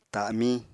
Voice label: "ta7miñ", Apatani

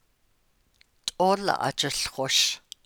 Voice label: Looks like he is sleeping, Navajo

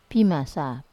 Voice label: "pimasa", big, Wamesa

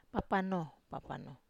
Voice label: "papano", Wamesa

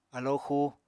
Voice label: "alóo xuu", Apatani; Material Culture

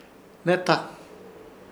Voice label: "Hnehta" apasionar, Ishir Chamacoco

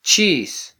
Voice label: "chis", mam

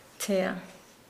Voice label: "white", Nukuoro

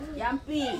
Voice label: "ampi", Ansus; eat

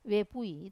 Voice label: "vepui" youngest, Wamesa

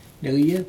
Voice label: "necye", black yellow fish, Aneityum

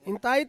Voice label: "intai", Ansus; mother

